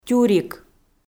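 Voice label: "тюрик", Komi, female; audio dict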